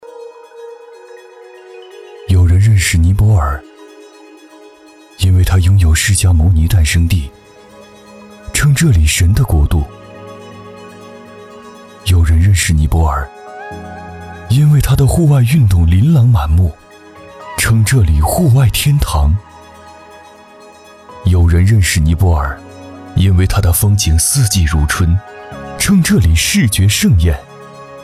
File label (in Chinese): 男,企业宣传,无播音腔; 圣途体育